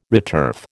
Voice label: "returf", American

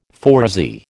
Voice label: "phoresy", American

